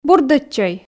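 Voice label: "бурдӧдчӧй", Komi, female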